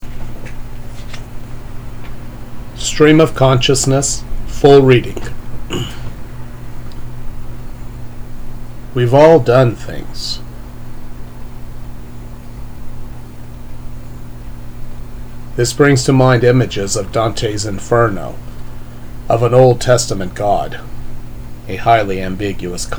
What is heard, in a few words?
Voiceover